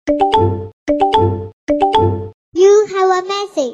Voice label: Message Child Voice